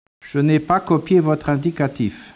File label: speech, French